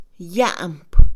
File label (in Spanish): "arder", Mixe, Mujer; INALI